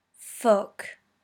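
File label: "fuck", British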